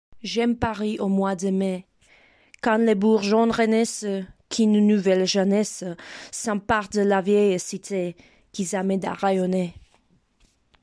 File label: voice sample french